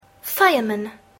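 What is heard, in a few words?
"fireman", English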